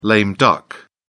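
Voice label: "lame duck", British English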